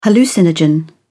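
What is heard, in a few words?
"hallucinogen", British English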